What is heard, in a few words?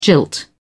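"jilt", British English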